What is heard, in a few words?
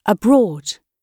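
"abroad", British English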